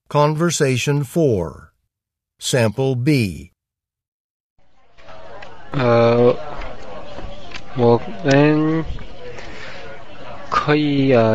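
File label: chinese conversation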